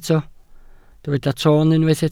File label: "toon", Welsh